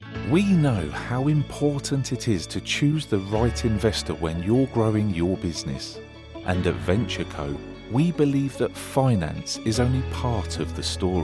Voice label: Corporate